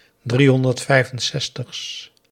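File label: "driehonderdvijfenzestigs", Dutch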